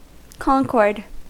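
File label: "concord", American English; pronunciations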